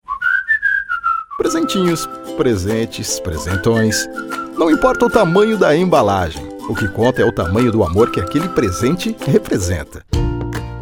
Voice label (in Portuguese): masculino